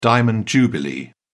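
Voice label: "diamond jubilee", British English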